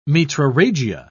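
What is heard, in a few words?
"metrorrhagia", British English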